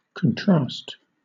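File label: "contrast", British English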